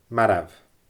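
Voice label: "marbh", Scottish Gaelic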